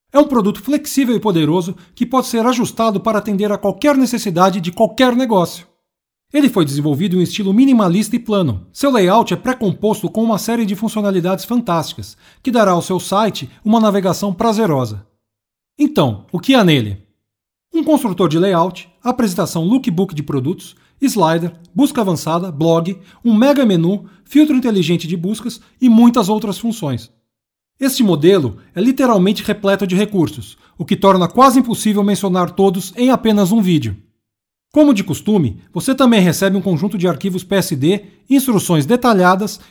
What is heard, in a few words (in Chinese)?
葡萄牙语男 外语 小语种